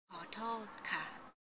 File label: "I'm sorry", female; real life language